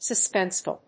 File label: suspenseful